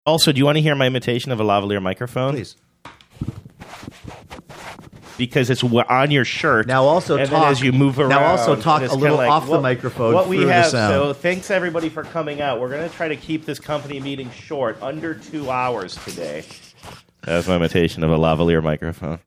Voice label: imitation lavalier microphone